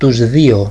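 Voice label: "toyw dyo", Greek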